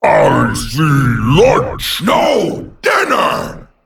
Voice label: Male Ettin

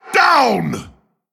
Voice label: Male Tolvir Attack

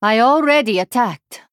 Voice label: Female Draenei ERROR I ATTACKED

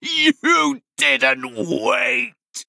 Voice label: Male Night Elf Death

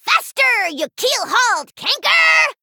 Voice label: Female Gnome Play

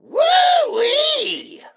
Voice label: Mech Emote Wow

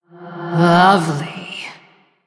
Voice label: Female Blood Elf Well Played; Valeera Deathknight

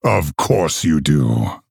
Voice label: Male Orc GREETINGS

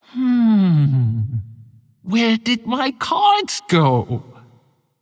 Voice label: Female Treant Low Cards

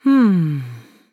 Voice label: Thinking

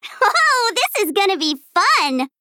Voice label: Female Gnome Picked

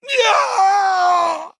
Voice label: Male Blood Elf Death

